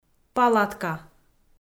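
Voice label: "палатка", Komi, female; audio dict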